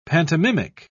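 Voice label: "pantomimic", British English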